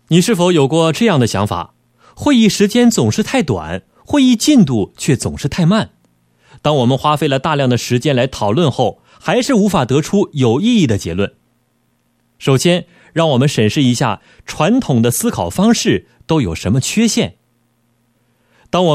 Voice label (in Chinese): 男 课件解说, 国语男声配音; 六彩